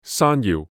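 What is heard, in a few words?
Cantonese "山瑶"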